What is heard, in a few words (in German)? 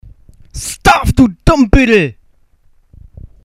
Narr; Stirb, du Narr!